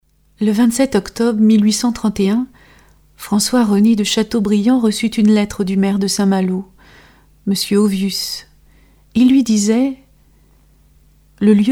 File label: narration